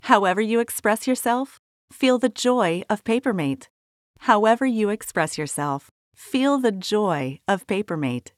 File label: joy warmth; Papermate